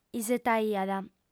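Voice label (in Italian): "isetaiat", lingua sarda, fem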